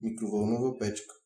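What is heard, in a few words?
microwave, Bulgarian; household; tuxpaint-stamps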